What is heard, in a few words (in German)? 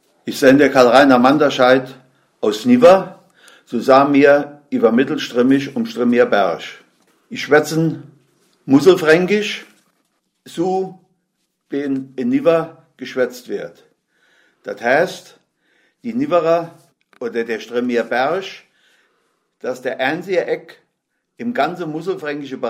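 Vorstellung, O-Ton Hunsrück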